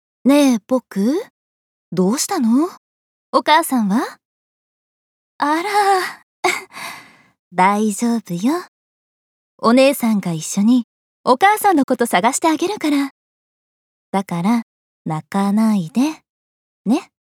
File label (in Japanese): 優しさ20代